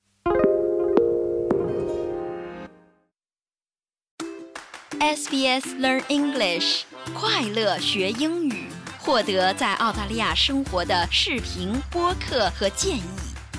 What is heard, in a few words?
mandarin newsflash; sbs